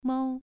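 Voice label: "mou", tone 2, pronunciation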